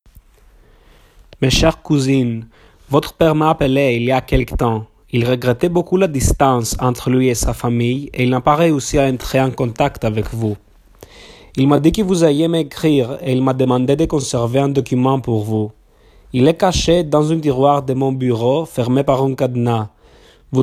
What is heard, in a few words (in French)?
voix FR; Quel est le code du cadenas ?; Patmos